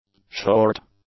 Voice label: "short", English; adjectives